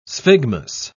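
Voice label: "sphygmus", British English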